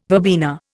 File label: "verbena", Indian